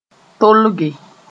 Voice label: "tolge", Mooré